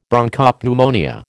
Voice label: "Bronchopneumonia", American